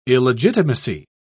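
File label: "illegitimacy", British English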